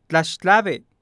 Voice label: gracias, Nahuatl Guerrero; Prontuarios

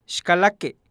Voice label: pasa de afuera hacia dentro, Nahuatl Guerrero; Prontuarios

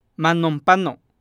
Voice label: voy a pasar enfrente de alguien, NAHUATL GUERRERO; Prontuarios

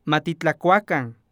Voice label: comamos, Nahuatl Guerrero; Prontuarios

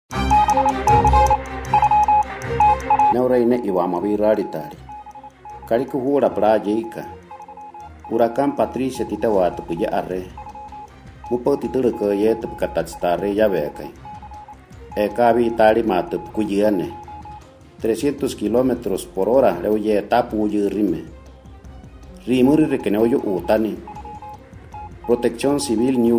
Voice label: Alerta Huracan en Huichol

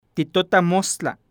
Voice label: hasta mañana, Nahuatl Guerrero; Prontuarios

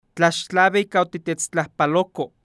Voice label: muchas gracias por su visita, Nahuatl Guerrero; Prontuarios

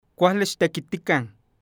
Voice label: "trabajen bien", Nahuatl Guerrero; Prontuarios

